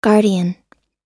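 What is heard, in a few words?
"guardian", English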